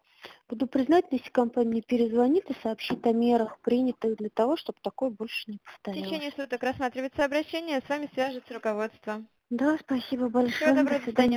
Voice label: tired voice